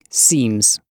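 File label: "seems", British English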